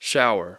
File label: "shower", English